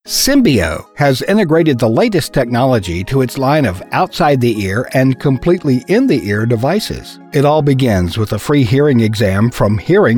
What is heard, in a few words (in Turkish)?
Amerikan Ingilizcesi Erkek; seslendirme